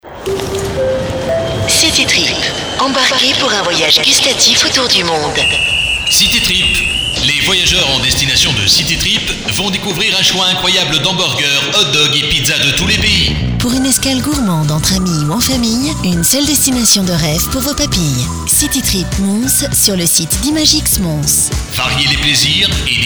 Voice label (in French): Pub Radio voix hôtesse de l air; CITYTRIP